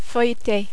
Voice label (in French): "feuilleter", French